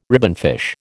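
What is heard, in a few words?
"ribbonfish", American English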